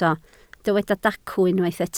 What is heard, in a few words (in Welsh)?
"dacw", Welsh